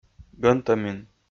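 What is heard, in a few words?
"гӧнтӧмин", Komi, male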